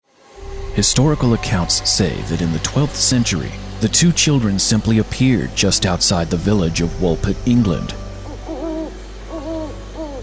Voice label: documentaries